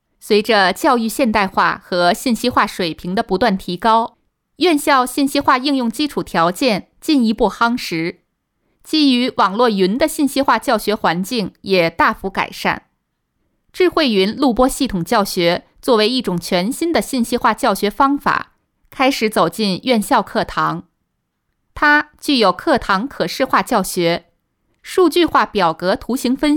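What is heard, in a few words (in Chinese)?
课件女播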